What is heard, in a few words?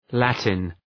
"Latin", Προφορά